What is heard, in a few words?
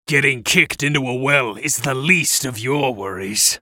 taunt, en US; Pantheon